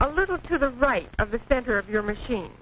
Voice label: a little to the right, Quirky Female Typing Instructor; VOCALS and SPOKEN WORD